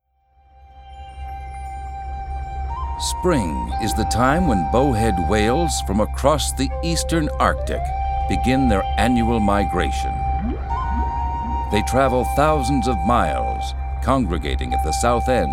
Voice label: Narration; National Geographic